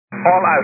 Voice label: 122 725MHz, scanner radio